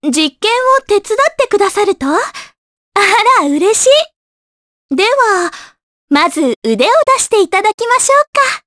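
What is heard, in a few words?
vox dia Japanese; Mediana; kingsraid; jp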